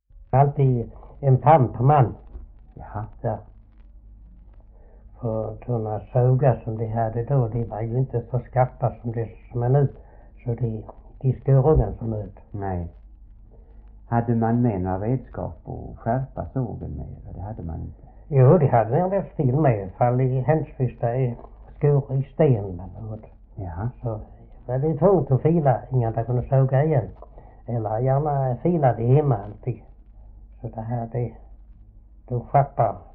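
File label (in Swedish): Oderljunga Skåne, Svenska sagor dialekter; Folkminnen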